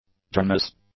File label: "direness", English